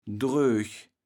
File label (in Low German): "drög", kaevels platt